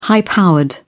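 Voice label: "high powered", English, British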